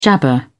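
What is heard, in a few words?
"jabber", British English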